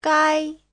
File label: "gāi", Chinese; Giáo trình Hán ngữ